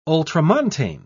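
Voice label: "ultramontane", British English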